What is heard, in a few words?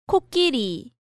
"Kokkiri", Korean